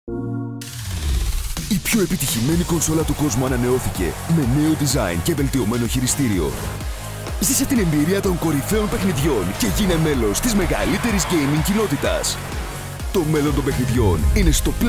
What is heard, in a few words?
TVC KIDS; PS4; XMAS